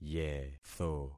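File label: "yetho", concatenated syllables; Affixing experiment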